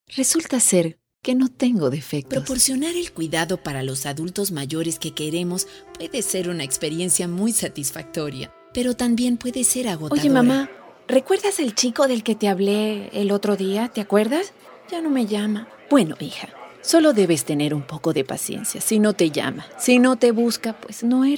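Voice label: Spanish Latin America female character; spanish americas voice over talent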